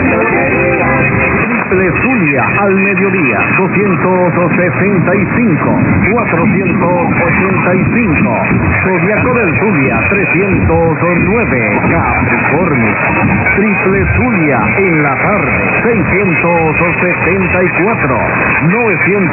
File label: radio; radio puerto cabella